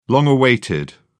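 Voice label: "long awaited", British English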